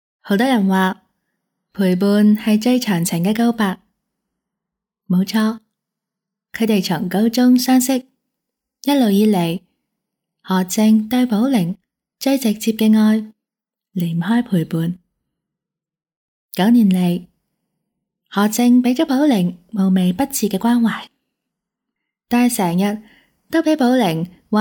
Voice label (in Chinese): 旁白 独白